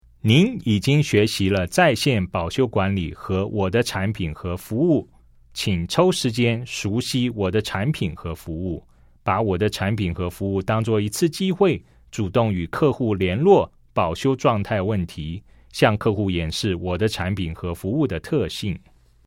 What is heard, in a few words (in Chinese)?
CHINESE